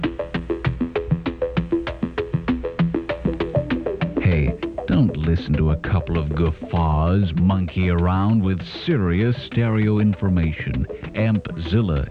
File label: Canadian Electronics AD